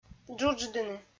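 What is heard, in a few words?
"джудждыны", Komi, female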